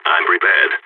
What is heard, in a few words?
in position, balkan; vo